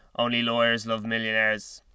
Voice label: male tense